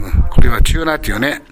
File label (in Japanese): "chuuna", minami yamagata